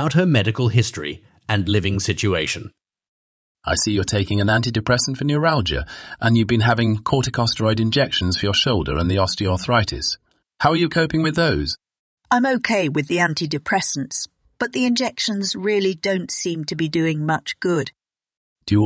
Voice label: Conversation; Bedside Manner Intermediate